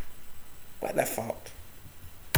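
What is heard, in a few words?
"whit", slang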